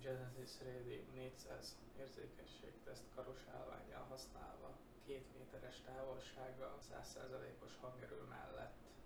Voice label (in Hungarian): Radium 400 2m 100, 2 méter távolság, 100%, mikrofon; Genesis Radium 400; nagyobb távolság